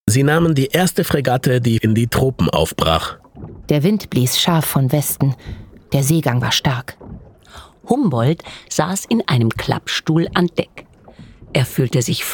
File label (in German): Hoerbuch